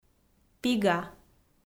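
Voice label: "пига", Komi, female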